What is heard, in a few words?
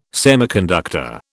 "semiconductor", British